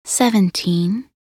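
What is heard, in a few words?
voc en min 17, English; minute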